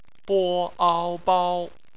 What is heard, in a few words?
"bao", Chinese; bpmf